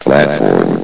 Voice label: "platform", vox; S.P.L.U.R.T-Station-13